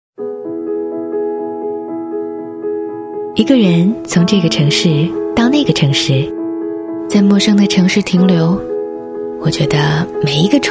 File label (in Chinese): 专题 讲述 情感, 女